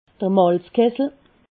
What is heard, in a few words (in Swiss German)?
"malzkessel", alsace